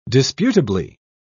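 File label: "disputably", British English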